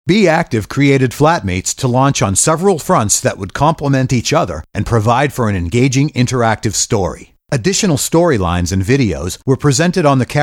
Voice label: podcasts